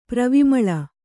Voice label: "ಪ್ರವಿಮಲ", kannada

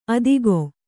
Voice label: "ಅತ್ತಿಗೆ", kannada

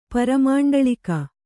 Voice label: "ಪರಮಂಡಳಿಕ", kannada

